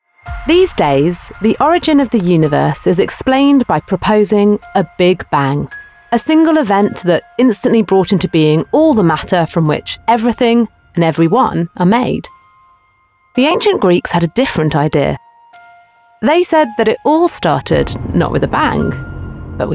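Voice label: Narration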